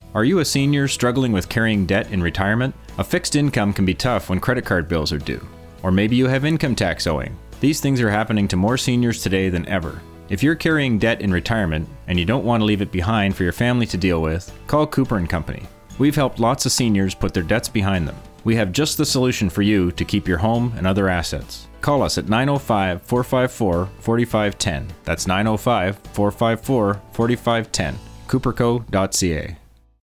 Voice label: Radio Spots